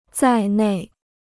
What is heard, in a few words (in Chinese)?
"在内", Chinese